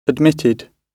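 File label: "admitted", British English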